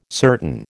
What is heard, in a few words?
"certain", American